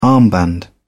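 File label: "armband", British English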